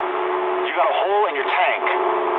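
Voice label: English tank damaged mood low; speech; War Thunder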